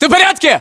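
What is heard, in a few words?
status check, battlechatter, Russian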